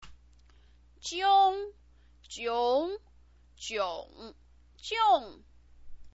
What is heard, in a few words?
"jiong", Chinese pinyin